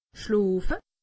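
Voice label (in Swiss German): "schloofe", Alsatian; medecine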